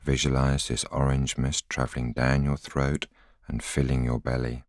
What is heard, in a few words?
voiceover